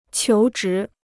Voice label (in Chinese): "求职", Chinese